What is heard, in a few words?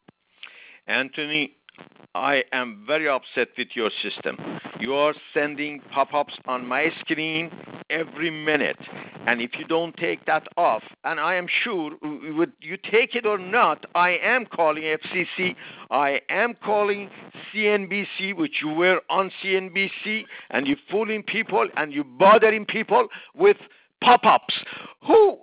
Voice Message